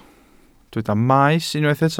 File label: "maes", Welsh